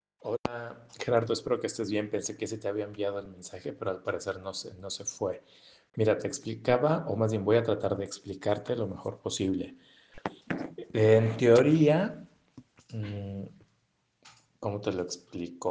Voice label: whatsapp ptt